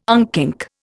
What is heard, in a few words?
"unkink", Indian